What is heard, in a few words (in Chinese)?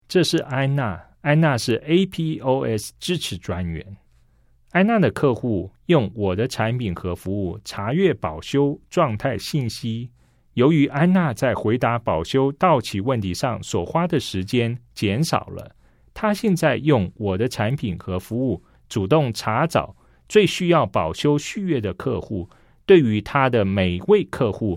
CHINESE